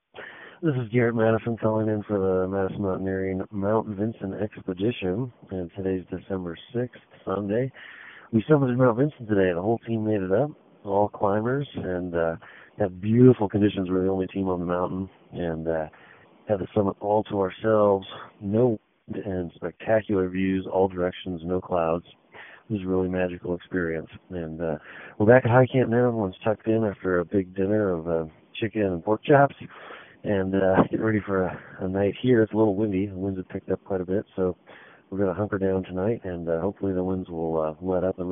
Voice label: voicemail